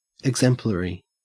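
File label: "exemplary", Australian English; pronunciations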